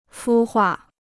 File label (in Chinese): "孵化", Chinese